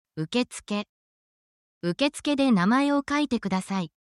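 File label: Japanese word, japanese phrases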